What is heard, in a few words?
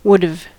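"would've", American English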